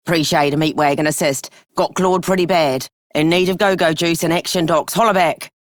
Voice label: Female Radio, en; Dialog; Warframe; Solaris Venus